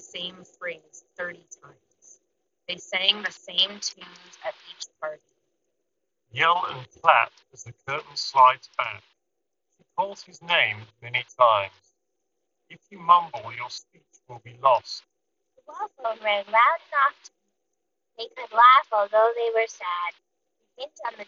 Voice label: Google Pixel Buds Pro Wind microphone sample

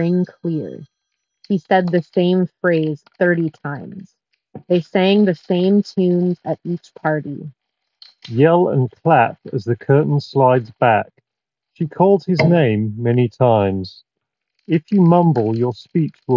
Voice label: Skullcandy Rail ANC Office microphone sample

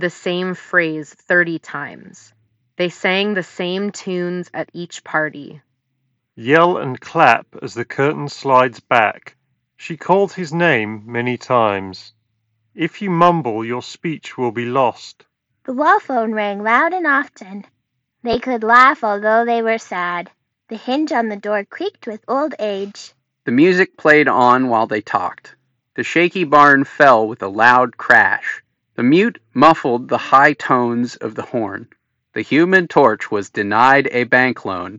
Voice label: Earfun Air Pro 4 Ideal microphone sample

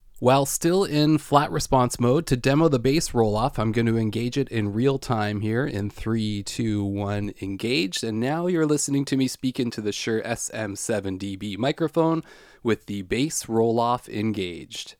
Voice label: SM7dB bass rolloff; shure sm7db

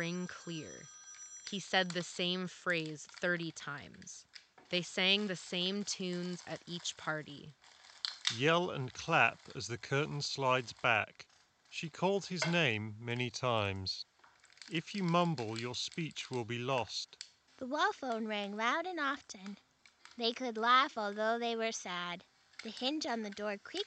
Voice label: Hyper X Cloud Stinger Office microphone sample; hyperx cloud stinger 2

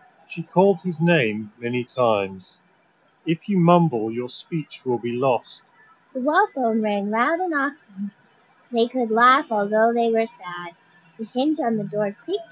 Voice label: JBL Tune 510BT Street microphone sample

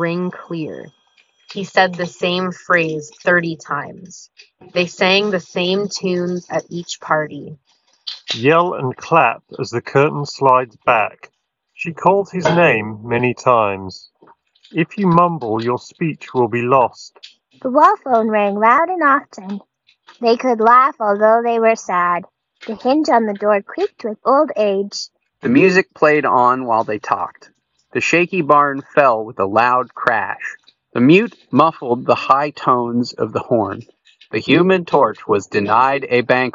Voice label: Samsung Galaxy Buds FE Office microphone sample

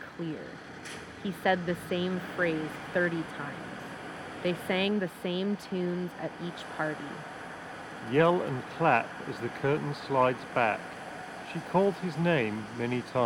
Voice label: 3.5mm Street microphone sample; Beyerdynamic Amiron Wireless

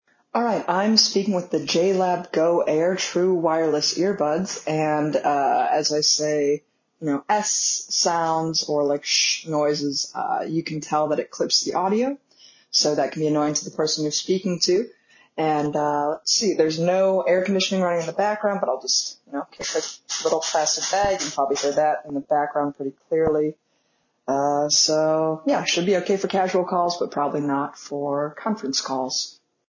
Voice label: JLab Go Air microphone

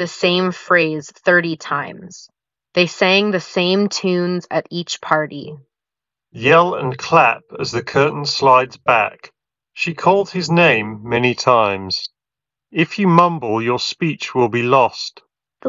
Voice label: ideal microphone sample; Samsung Galaxy Buds FE

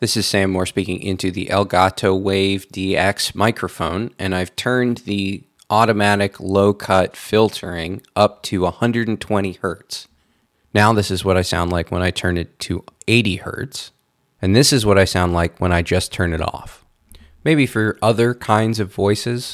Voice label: Elgato Wave DX lowcut filtering

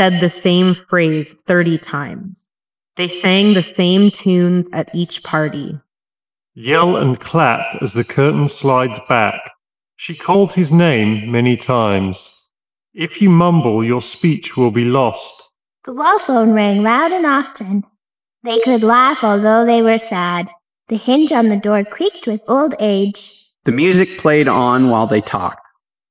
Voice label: Reverb microphone sample; Anker Soundcore Space One

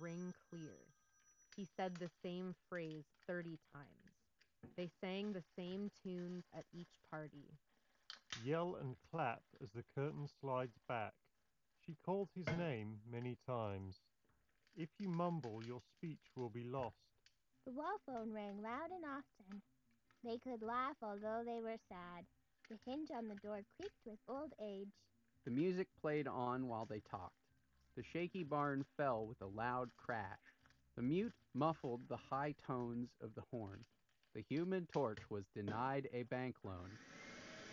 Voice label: Office microphone sample; Beats Studio Pro

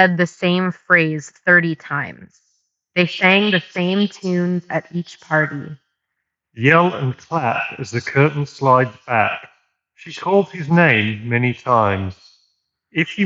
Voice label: Earbuds reverb; Bose QuietComfort Ultra; 2nd Gen

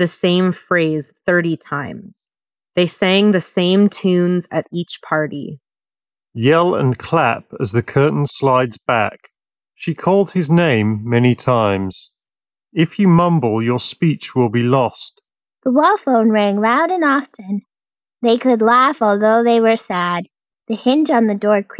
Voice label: Ideal microphone sample; JBL Live 670NC

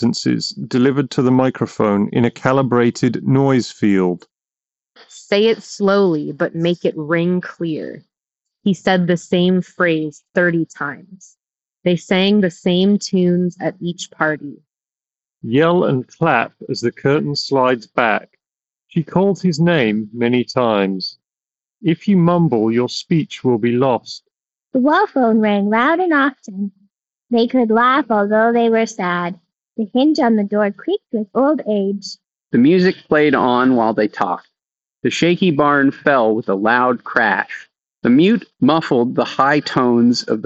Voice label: Headphone street

